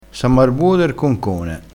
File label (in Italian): "ar buo der concone", crespinese